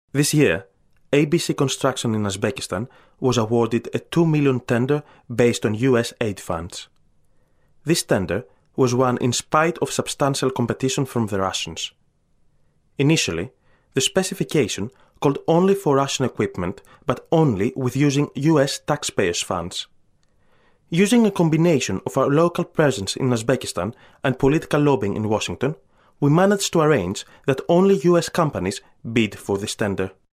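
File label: Greek English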